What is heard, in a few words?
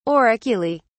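"auriculae", British English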